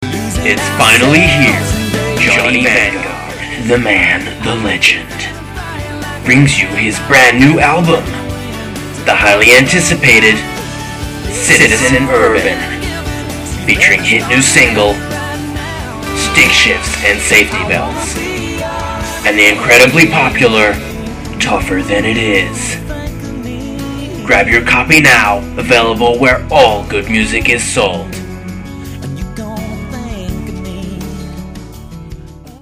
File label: radio ad